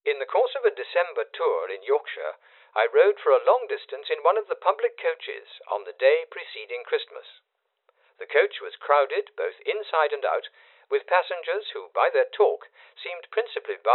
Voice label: speech 400-4k